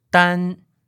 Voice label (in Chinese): "dan1", Chinese; Mandarin